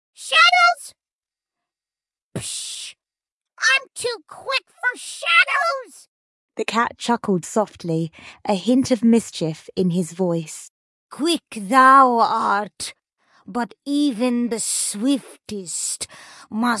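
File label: There was a Little Guinea Pig, female, British, story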